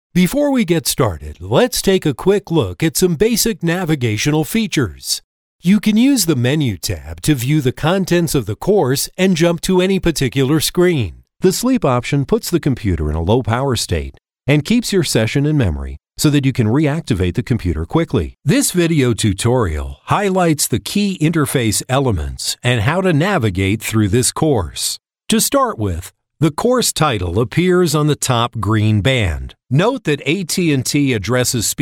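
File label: e-learning, male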